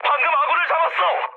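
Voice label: Korean player killed ally mood med, speech; War Thunder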